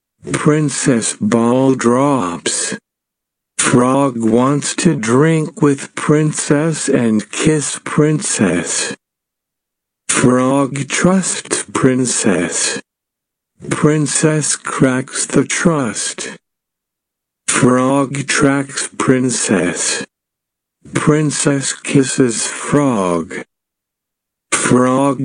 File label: Slow